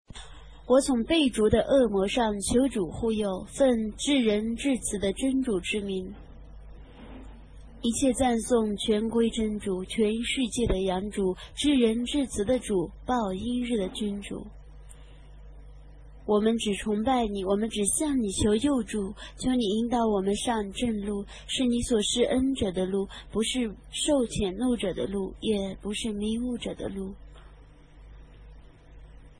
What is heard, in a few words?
Chinese Audio Quran Translation